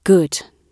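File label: "good", British English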